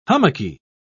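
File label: "hummocky", English, British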